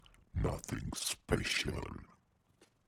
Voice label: demonic techno voice "nothing special"